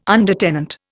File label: "undertenant", Indian